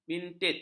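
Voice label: "bintit", Malay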